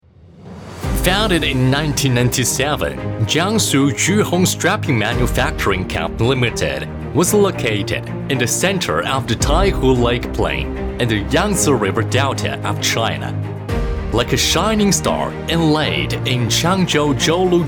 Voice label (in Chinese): 铿锵有力英文试音干音